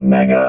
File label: "mega", robot